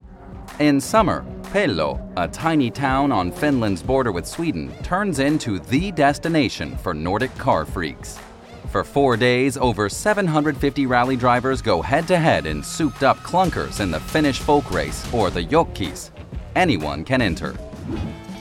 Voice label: Narration; LOFT VOICES

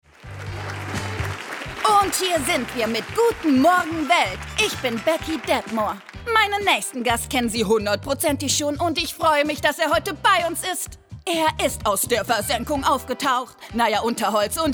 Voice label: Animation

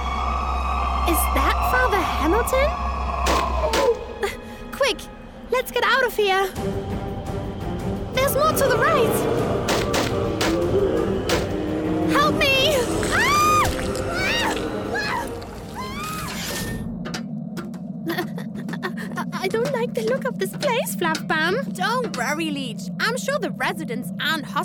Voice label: character and accent

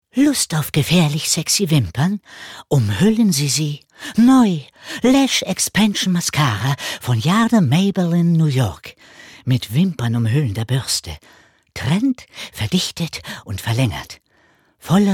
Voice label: comedy

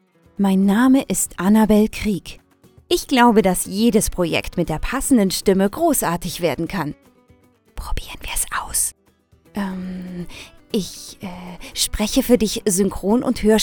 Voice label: Presentation

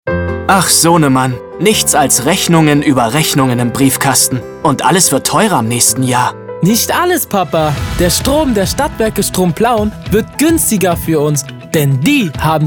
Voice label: Radio Spot; Stadtwerke Plauen Strom; LOFT VOICES

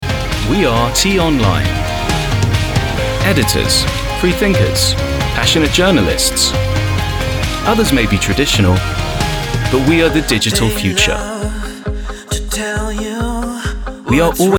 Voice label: commercial; T-Online

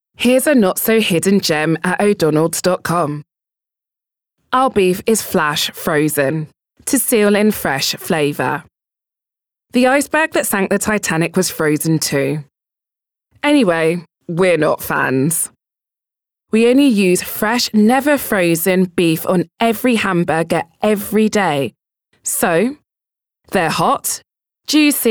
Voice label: Commercial (Werbung), English UK; LOFT VOICES

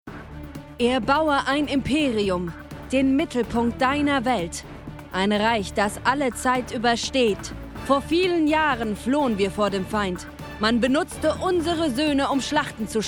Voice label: game-trailer; empire of pain

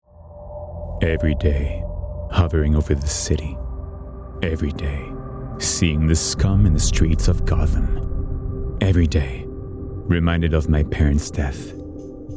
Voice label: EN Trailer; Batman

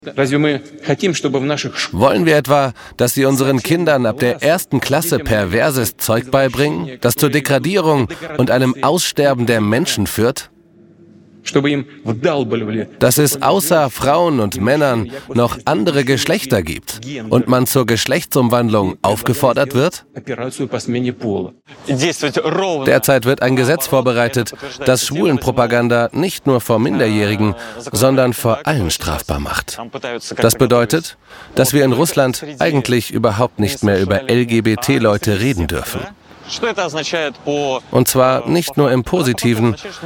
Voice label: Documentary; ARTE Re; Gay Russia; LOFT VOICES

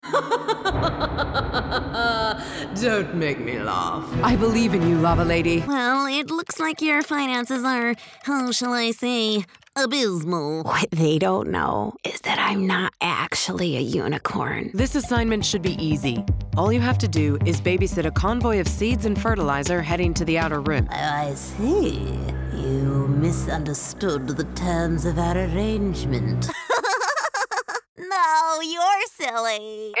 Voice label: animation videogames

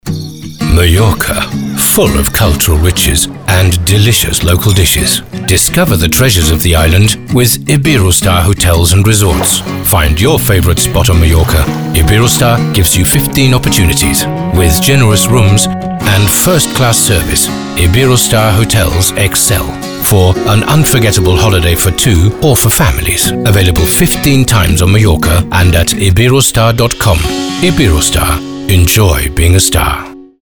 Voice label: Image englisch; Iberostar

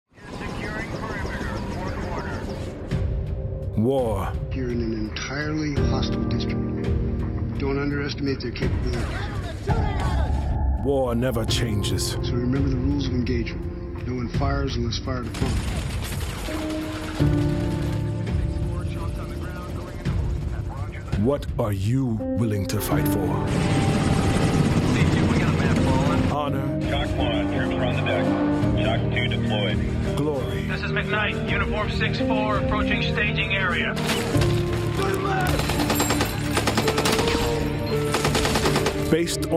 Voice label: Movie Trailer; Black Hawk Down

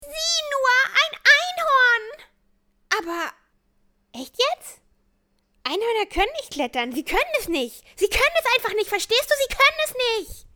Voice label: Cartoon; BU

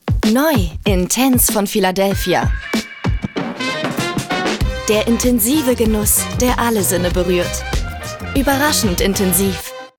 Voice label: Intense

